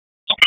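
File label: Wake Forest Police Dispatch, p25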